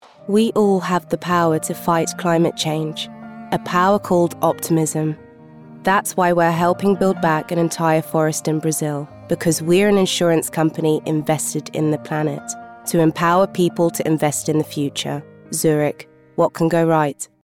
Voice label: UK; Zurich

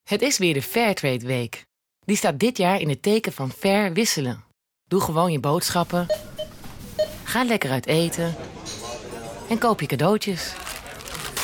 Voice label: NL; max havelaar

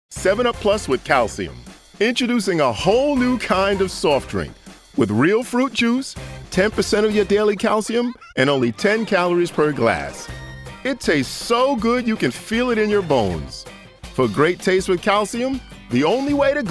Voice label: commercial; 7Up

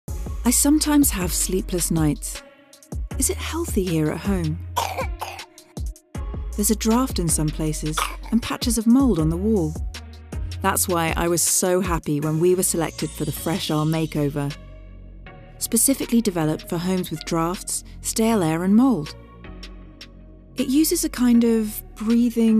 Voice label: UK; Fresh-R

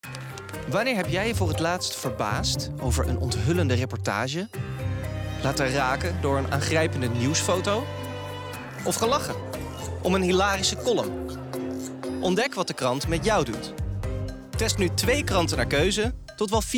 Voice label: Commercial; De Persgroep

